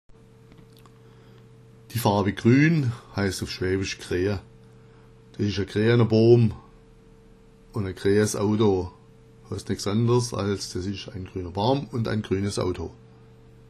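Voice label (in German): grea, schwäbisch; die Farbe grün heißt auf schwäbisch grea.; „Des isch a greaner Boom on a gre-âs Auto“ heißt nichts anderes als: dies ist ein grüner Baum un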